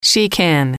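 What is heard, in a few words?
"she can", English, female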